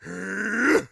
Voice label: heavy attack, orc voice; Orc Voice Pack

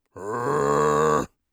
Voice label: irritated, orc voice; Orc Voice Pack

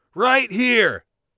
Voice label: here, Warrior Voice; Warrior Voice Pack

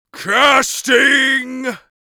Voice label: casting, mage voice; Mage Voice Pack

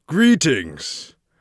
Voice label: hello, Mage Voice; Mage Voice Pack

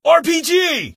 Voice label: "war", Male; rpg

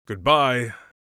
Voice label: goodbye, Mage Voice; Mage Voice Pack

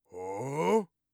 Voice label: sceptical, orc voice; Orc Voice Pack

